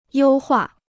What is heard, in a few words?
"优化", Chinese